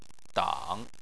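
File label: "dang" third tone, Chinese; Chinese Surnames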